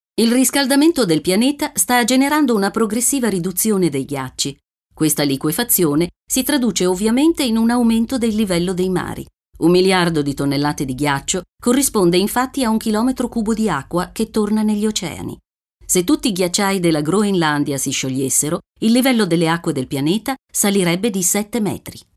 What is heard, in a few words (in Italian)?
documentario